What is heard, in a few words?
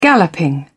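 "galloping", British English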